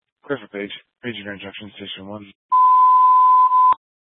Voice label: Fire Dispatch

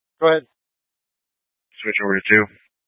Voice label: Police Department Dispatch

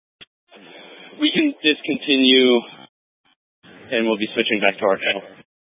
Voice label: Incident Tac, scanner; Incident Tac 1

